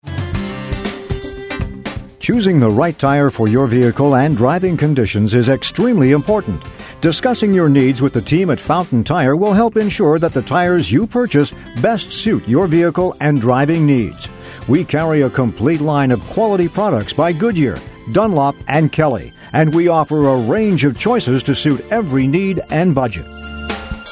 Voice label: On Hold